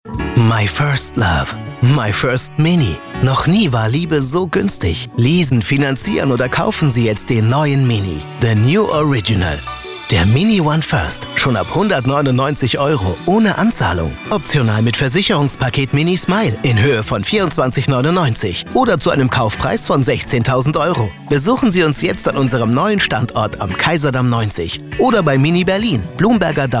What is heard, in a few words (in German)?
Funkspot; Mini; Processed